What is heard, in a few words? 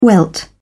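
"welt", British English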